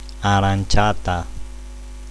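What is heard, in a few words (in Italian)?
"aranciata", Italian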